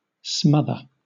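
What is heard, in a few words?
"smother", British English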